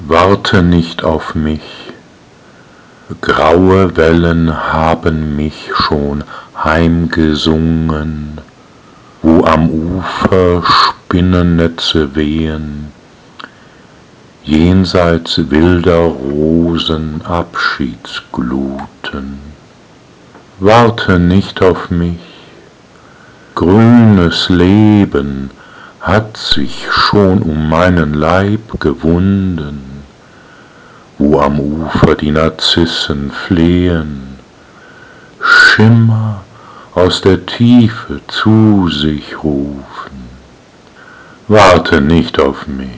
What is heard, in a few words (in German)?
Die weibliche Stimme